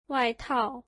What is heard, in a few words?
coat, mandarin